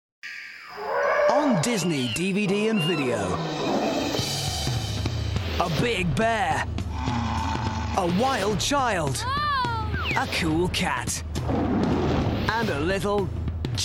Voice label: COMMERCIAL; COMMERCIAL REEL

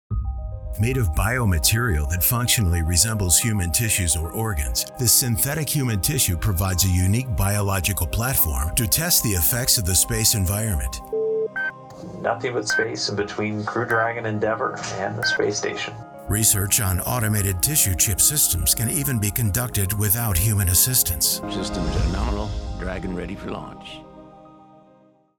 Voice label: NARRATION; Male Voice Talent

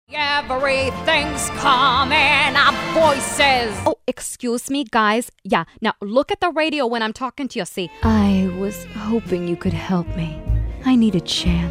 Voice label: Character; Female Voice Talent

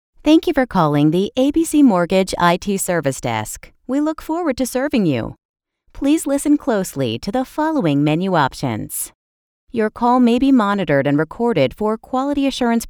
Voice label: Telephony; Female Voice Talent; Telephony Demo

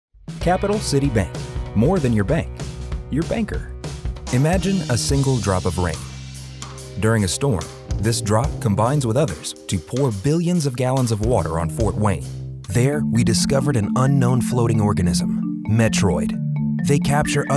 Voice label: Book on Tape; Male

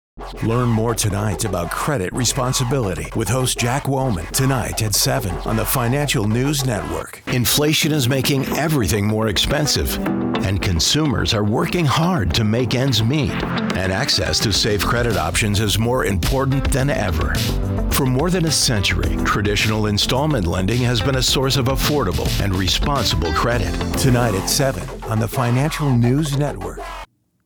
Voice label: imaging; Male

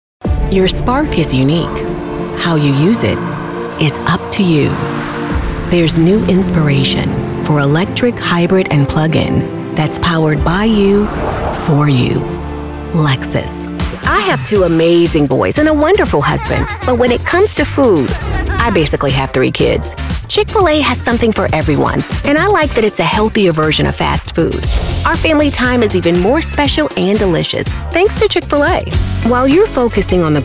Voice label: Commercials; Female Voice Talent

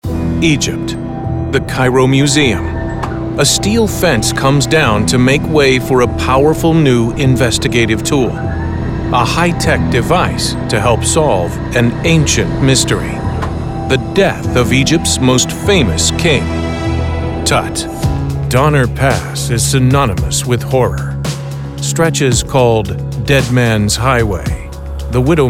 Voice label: In Show Narration; Male

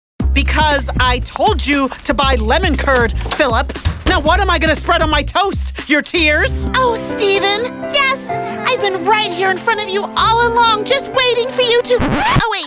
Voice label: Animation; Female Voice Talent

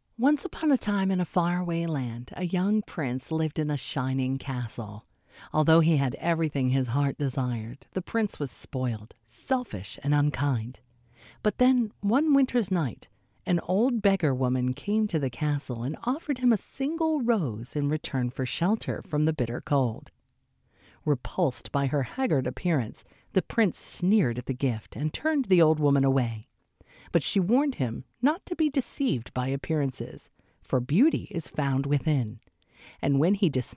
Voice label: Audiobook; Female Voice Talent; Audiobook Demo

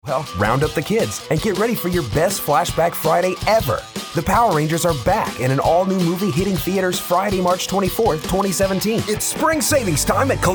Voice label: commercial; Commercial Demo; Male Voice Talent

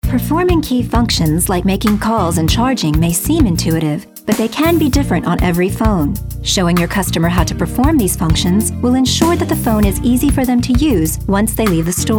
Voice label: Narration; Female Voice Talent; Narration Demo

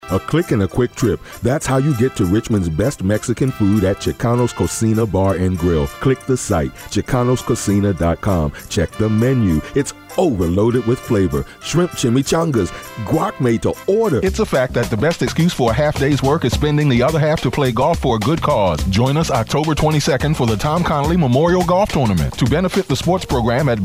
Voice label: Commercials; Male Voice Talent; Voice Reel

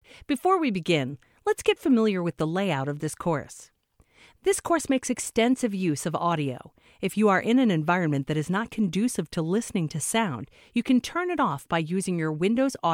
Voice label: Narration E-learning Webinar; Female

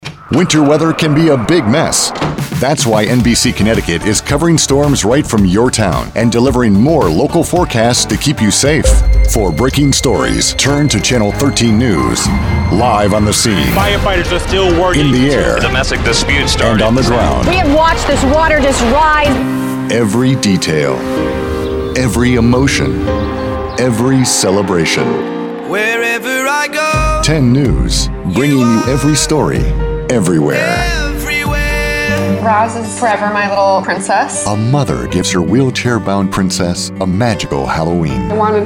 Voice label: voice Affiliate; Male Voice Talent; Affiliate Demo

